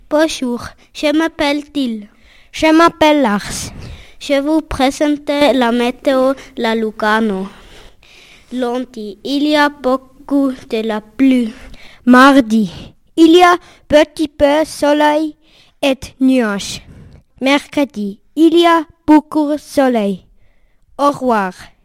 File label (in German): Wetter Lugano francais; Herrenschwanden